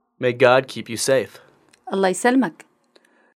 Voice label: iraqi, May God keep you safe (answer) allah ysalmak الله يسلمك